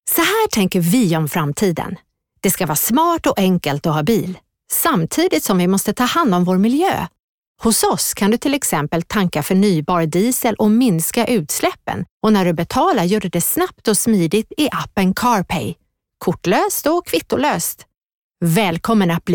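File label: swedish female character; voice demos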